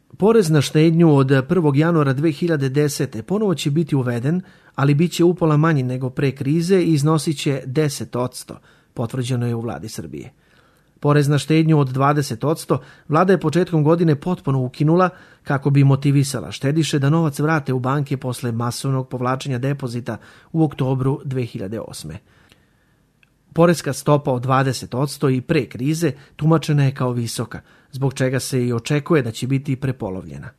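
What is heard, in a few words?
Serbian, male, corporate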